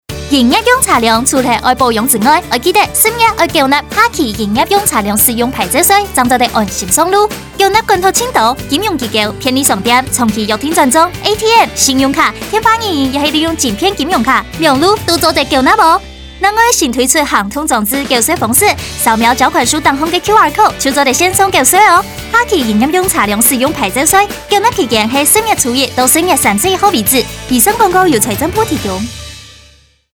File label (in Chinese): 下期營業用車輛使用牌照稅廣播廣告(客語); 使用牌照稅下期宣導短片客語